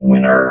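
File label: "winner", robot voice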